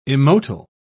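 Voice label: "immotile", British English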